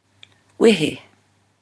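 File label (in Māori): "wehe", Māori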